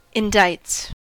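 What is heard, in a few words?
"indicts", US English; pronunciations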